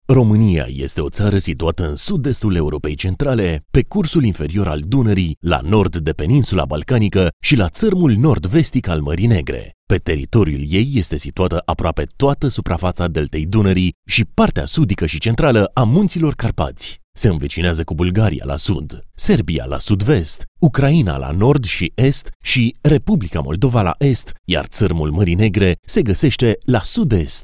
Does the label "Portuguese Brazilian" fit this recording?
No